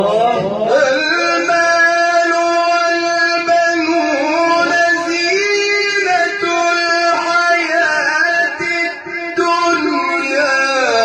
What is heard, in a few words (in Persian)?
فرازی زیبا از سوره کهف